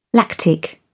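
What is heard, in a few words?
"lactic", British English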